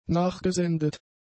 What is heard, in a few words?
"nachgesendet", German; adjectives